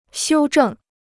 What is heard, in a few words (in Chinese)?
"修正", Chinese